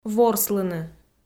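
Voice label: "ворслыны", Komi, female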